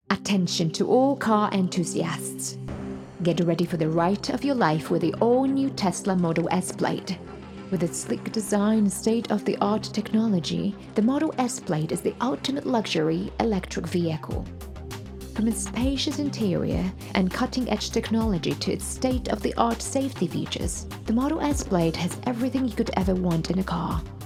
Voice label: voice over; tesla